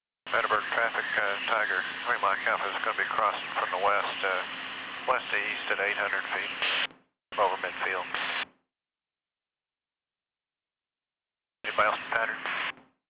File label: chatter; rigs of rods